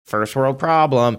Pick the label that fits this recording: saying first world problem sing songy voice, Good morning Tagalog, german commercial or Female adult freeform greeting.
saying first world problem sing songy voice